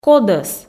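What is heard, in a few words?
"кодӧс", Komi, female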